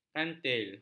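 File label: "kantil", Malay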